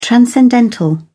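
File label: "transcendental", British English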